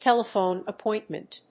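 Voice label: "telephone appointment", English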